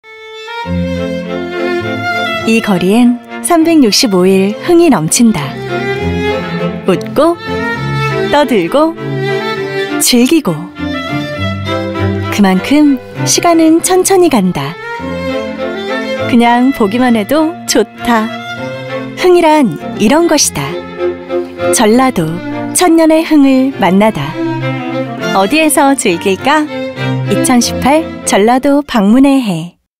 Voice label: Commercial